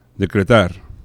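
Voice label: "decretar", Spanish; laboratori fonetica; vibrants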